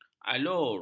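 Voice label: "alur", Malay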